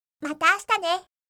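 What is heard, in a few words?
"mata ashita ne", Japanese; aisatsu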